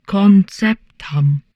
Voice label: humanoid portrait female; Stellaris; humanoids